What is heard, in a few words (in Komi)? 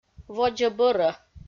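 "водзӧ-бӧрӧ", Komi, female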